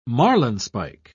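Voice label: "marlinspike", British English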